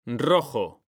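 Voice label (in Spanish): "rojo", male; mekanta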